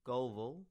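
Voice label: "Gulval", English; place-names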